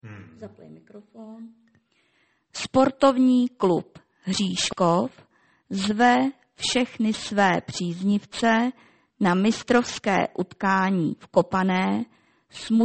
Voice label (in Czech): hlaseni; rozhlas